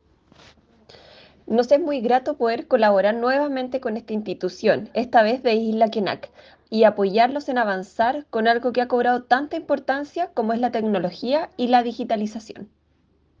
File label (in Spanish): CUÑA